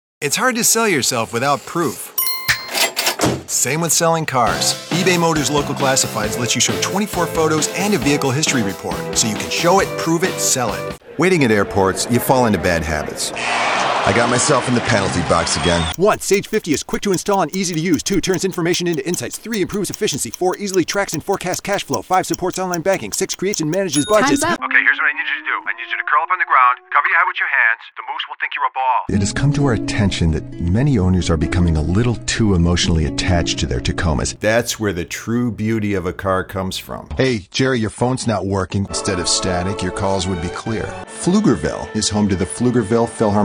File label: Commercial